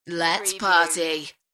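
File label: British female Lets party; beanstalk audio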